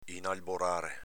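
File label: "inalborare", Venetian dialect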